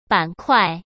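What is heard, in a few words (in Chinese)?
"板块", Mandarin Chinese; chinese flashcards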